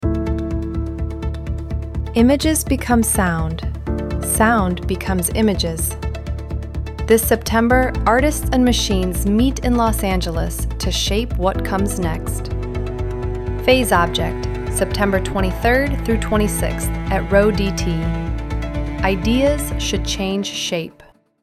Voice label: radio spot